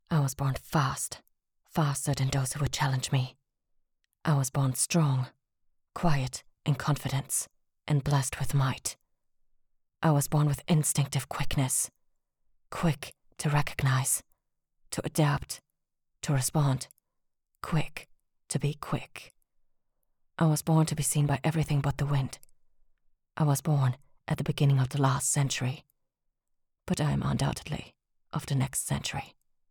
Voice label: female german voice over english